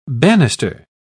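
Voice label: "bannister", British English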